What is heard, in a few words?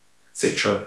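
Voice Acting, Spanish, male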